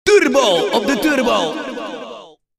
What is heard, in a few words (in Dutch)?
Turbo op de Turbo, Kermis Jingles Gesproken